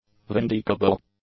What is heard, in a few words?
"vindicable", English; adjectives